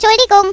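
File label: "tschuldigung", German; cstrike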